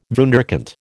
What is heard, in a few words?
"wunderkind", American